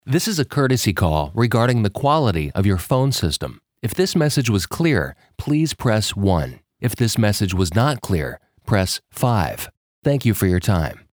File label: Phone Test